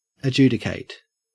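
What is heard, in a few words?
"adjudicate", Australian English; pronunciations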